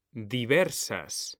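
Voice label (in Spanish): "diversas", Spanish; mekanta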